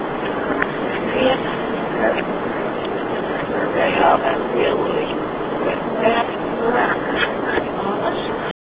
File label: reversed they ought to kill me; Homer Mill 2009; HM EVP=Disembodied vice saying "You ought to kill me"